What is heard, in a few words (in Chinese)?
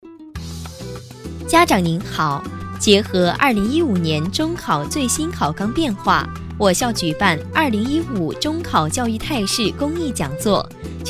女 彩铃 温柔甜美